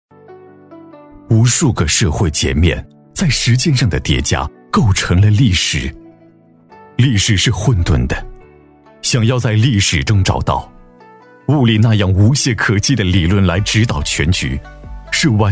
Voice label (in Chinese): 男, 宣传片, 低沉有力; 男107-厚重有力; 大气浑厚